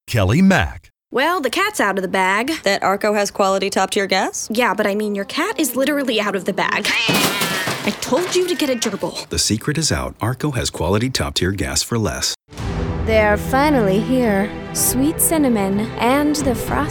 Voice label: voiceover reel